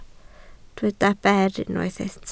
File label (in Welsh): "ber", Welsh